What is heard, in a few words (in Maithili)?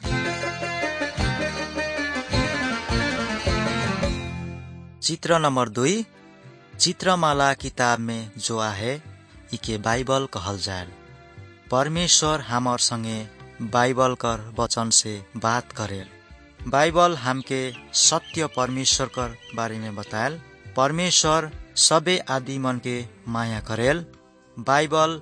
good news maithili, nt drama